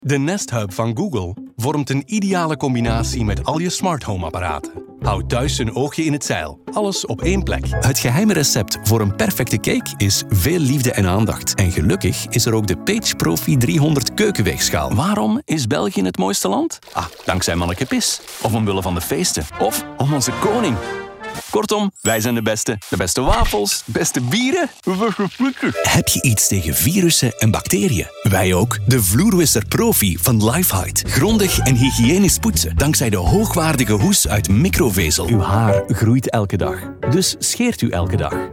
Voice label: flemish commercial; Inglés (internacional) Flamenco; Estados Unidos

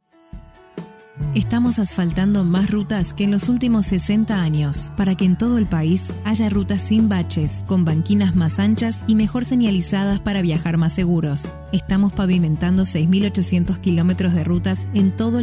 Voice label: spanish argentinian political ads

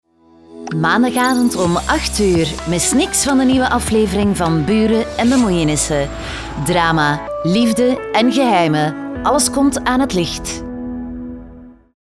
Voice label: flemish tv shows; flemish voice actors

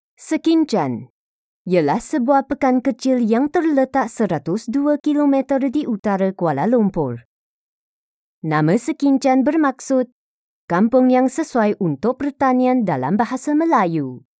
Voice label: malay narration

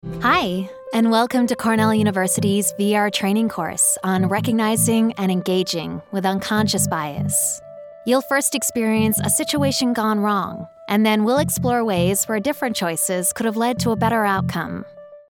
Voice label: english american audio guides

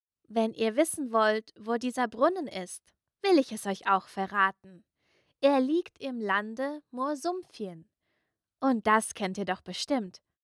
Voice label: german

